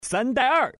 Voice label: 3 and 2, male